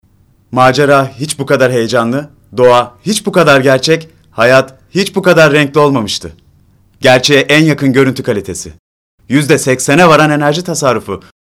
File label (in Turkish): reklam